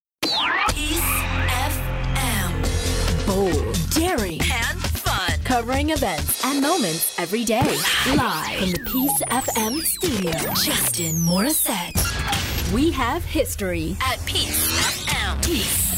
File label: english canadian radio imaging